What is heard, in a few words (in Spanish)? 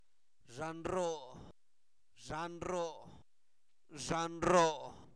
barba, Zapoteco, santo domingo albarradas; cuerpo; INALI